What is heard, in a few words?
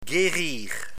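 "guerir", French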